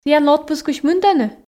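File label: "тіянлы отпускысь мынтӧны", Komi, female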